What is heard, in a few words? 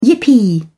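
"yippee", British English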